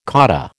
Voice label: "cotta", American